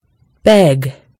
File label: "beg", en GB; gcompris